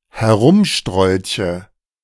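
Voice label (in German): "herumstrolche", German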